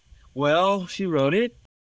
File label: Voice Acting, es